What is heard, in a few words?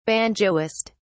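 "banjoist", British English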